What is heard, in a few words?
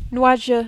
"nuageux", French